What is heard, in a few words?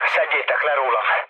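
Hungarian aircraft damaged mood low, speech; War Thunder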